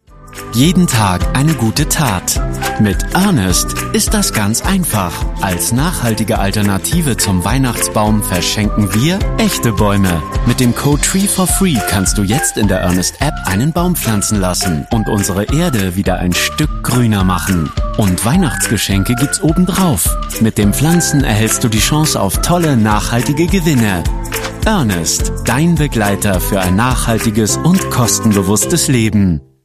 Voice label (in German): Werbung optimistisch